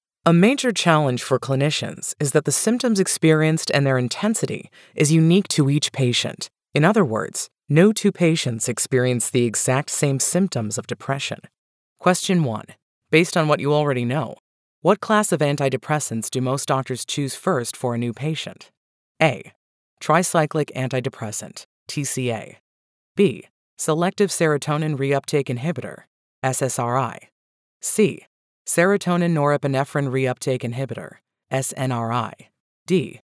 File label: Medical Narration